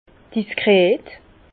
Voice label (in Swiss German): "diskret", Bas Rhin; vin; discret